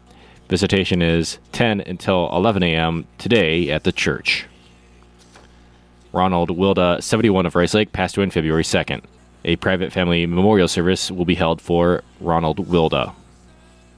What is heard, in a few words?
Funeral Announcements